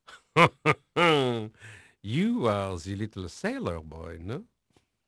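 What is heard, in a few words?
French male, voice; Pirates Of The Caribbean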